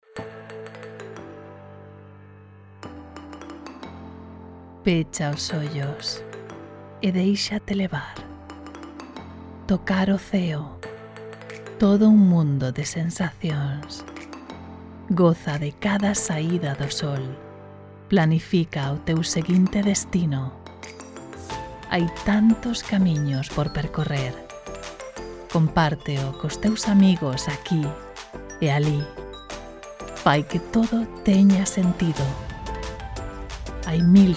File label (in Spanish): GALLEGO COMERCIAL